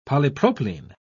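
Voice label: "polypropylene", British English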